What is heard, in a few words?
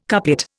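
"couplet", Indian English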